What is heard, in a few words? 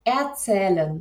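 "erzählen", German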